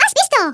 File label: amazing, Hispanic; Worms Armageddon